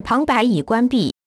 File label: Voice Over Off, zh CN; hackintosh; AXEFIAudio